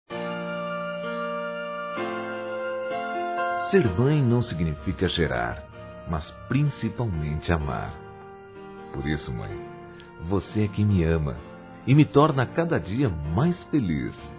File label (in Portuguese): MÃE ADOTIVA, Telemensagens Dia das Mães, Masc; Telemensagens 2017